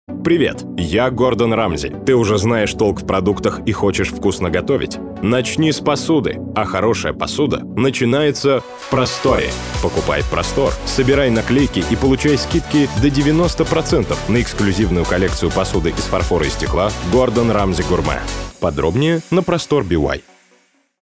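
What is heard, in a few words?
russian male commercial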